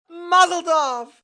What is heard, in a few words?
Mazel Tov M, male, Yiddish 'Mazel tov' (congratulations); Talking Sound Effects; Cheers, congratulations, male, foreign; (human voice speaking); VoiceBox; Twistedtracks